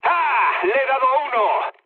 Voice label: player killed enemy, mood low, speech; War Thunder

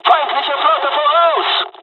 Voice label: dialog, dialogs german; War Thunder; midway

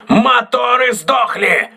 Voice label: voice message lost propulsion, russian

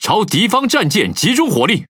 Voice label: Speech Priority Target, ZH TW; World Of Warships

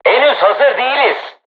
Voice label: voice message art reloading, turkish

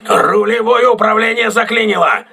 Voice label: voice message rudder damaged, crew ships russian

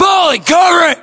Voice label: US response covering, battlechatter, english

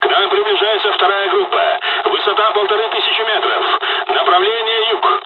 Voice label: dialog, dialogs russian; War Thunder

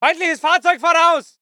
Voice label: voice ger infantry

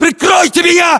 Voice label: order action cover me, ru; localized english

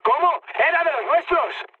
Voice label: player killed ally, mood high, speech

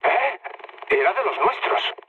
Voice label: player killed ally, mood low, speech; War Thunder

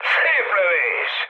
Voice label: player killed enemy, mood high, speech hun

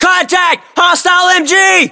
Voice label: US threat mg, battlechatter, english

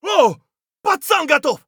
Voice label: friendly down; Escape from Tarkov; scav4

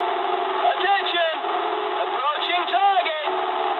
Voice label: eng near waypoint mood low, speech eng; War Thunder

